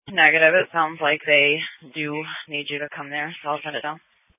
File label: Police Dispatch